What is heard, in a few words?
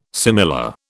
"similar", British